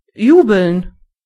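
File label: "cheer", German; gcompris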